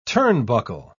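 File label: "turnbuckle", British English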